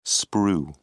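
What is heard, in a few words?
"sprue", English, British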